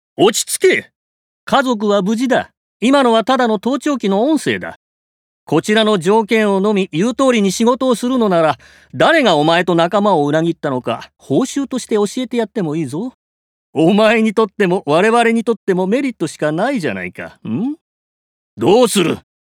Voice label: ギャング50代

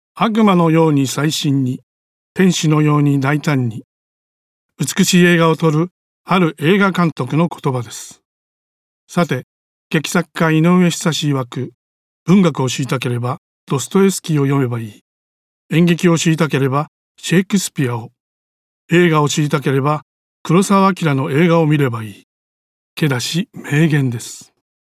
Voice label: 開設ナレ

